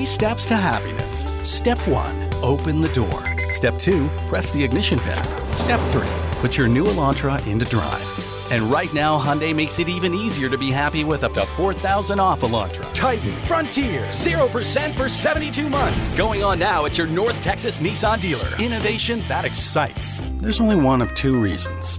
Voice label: english american automotive